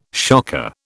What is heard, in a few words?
"shocker", British